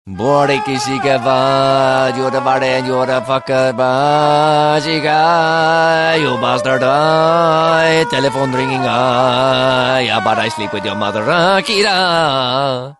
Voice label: Funny Indian Guy

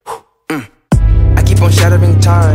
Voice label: Whisper; Ekko

